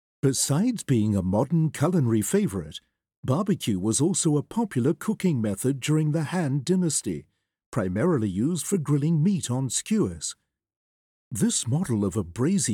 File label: ENG; audio guide